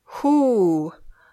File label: "Who", English